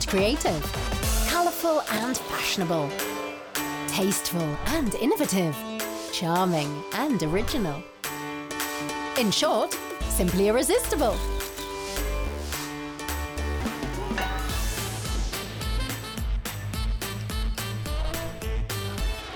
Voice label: voice artist teaser tv show; Sprecher in Berlin; Muttersprache Englisch